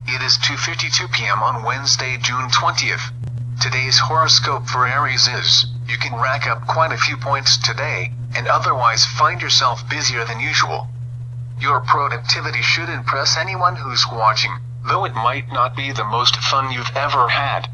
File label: talking alarm